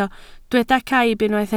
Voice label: "caib", Welsh